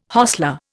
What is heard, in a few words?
"hostler", Indian